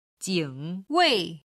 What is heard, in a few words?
"jingwei", chinese